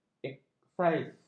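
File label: "eksais", Malay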